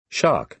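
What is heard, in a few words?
"shock", American English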